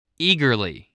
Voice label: "eagerly", English

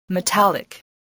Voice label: "metallic", English

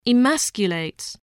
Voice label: "emasculate", spelling

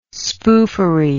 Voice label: "spoofery", english

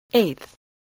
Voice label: "eighth", English

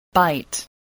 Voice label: "bite", spelling

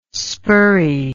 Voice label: "spurrey", English

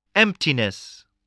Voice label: "emptiness", spelling

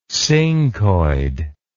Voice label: "scincoid", English

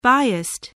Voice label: "biased", English

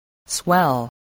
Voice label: "swell", voice spelling

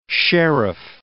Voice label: "sheriff", words

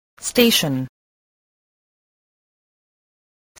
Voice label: "station", voice

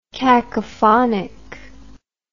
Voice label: "cacophonically", English

